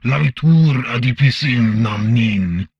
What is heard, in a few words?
humanoid male; Stellaris